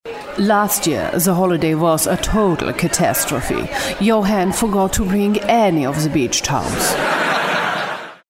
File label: german accent